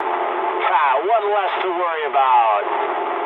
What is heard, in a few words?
eng player killed enemy mood med, speech eng